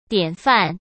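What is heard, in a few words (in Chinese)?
"典范", Mandarin Chinese; hsk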